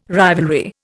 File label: "rivalry", Indian